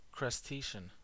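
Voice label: "crustacean", American English; pronunciations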